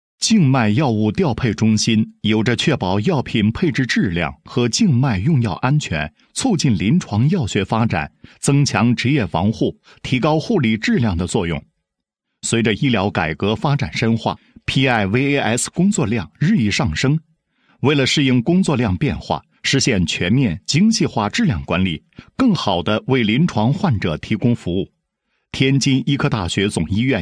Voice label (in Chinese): 男 产品介绍; 静脉药物调配中心 PIVAS简介